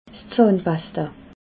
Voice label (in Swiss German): "zahnpasta", alsace